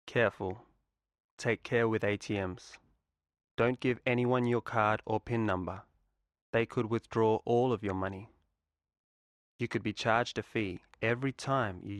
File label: english